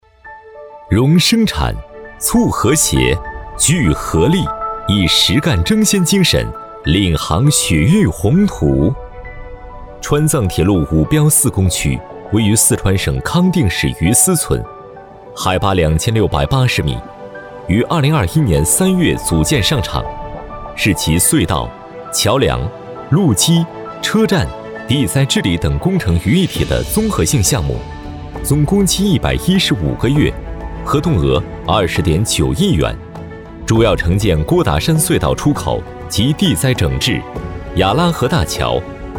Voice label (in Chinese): 男 专题 川藏铁路